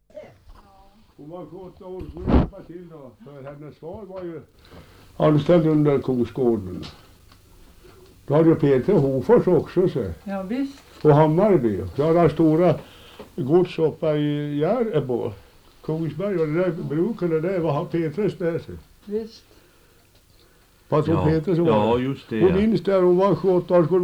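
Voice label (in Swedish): Ovansjö Gästrikland, Svenska sagor, dialekter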